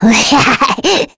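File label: Vox Happy, Korean; kingsraid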